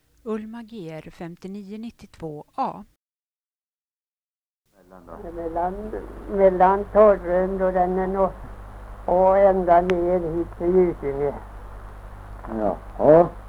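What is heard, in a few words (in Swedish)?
Österfärnebo Gästrikland, dialekter; Svenska sagor; Folkminnen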